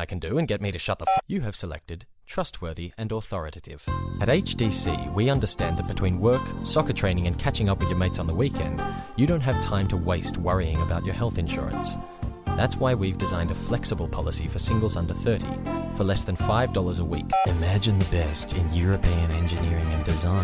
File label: Voice Over